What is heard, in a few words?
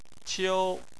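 "qiu", Chinese, tone 1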